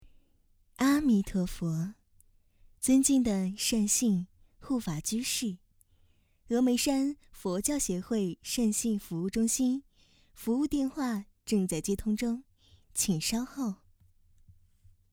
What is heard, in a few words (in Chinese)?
彩铃; 峨眉山佛教协会